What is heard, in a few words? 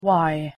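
pronunciation English "y"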